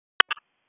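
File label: Public Safety Tactical, p25; wake p25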